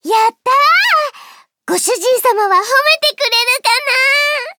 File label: victory jp